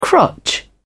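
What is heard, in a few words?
"crotch", British English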